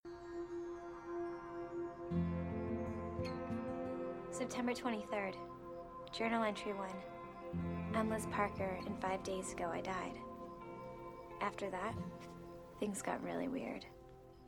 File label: english